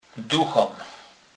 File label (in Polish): "duchem", kurpiow